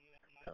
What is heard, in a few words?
Police Sheriff Dispatch